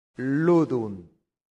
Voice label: "ludunt", Latin; santillana